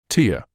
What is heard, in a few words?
"tear", English